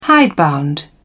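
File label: "hidebound", English, British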